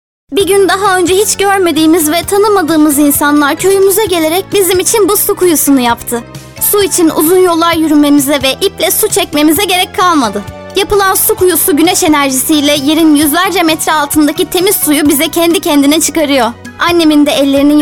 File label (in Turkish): anlatici